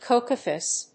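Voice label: "coquettish", English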